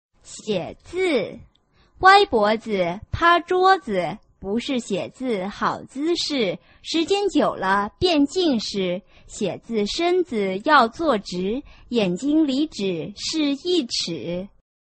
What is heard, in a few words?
chinese tongue twister